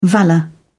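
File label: "valour", British English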